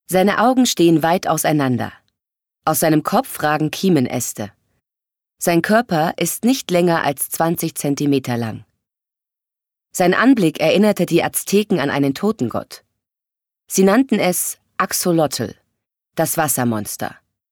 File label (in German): Dokumentarischer Text; LOFT VOICES